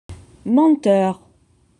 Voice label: "menteur", French

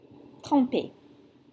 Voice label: "trempée", French

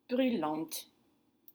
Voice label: "brulante", French; burning

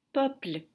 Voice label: "Peuple", French

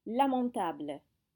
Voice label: "lamentable", French

